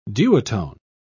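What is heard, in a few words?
"duotone", British English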